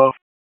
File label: Raleigh Police Northwest District Tac, p25; wake